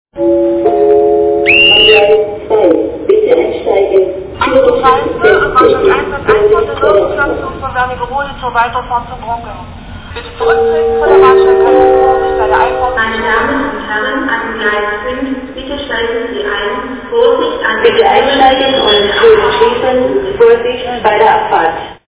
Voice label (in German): Ansagen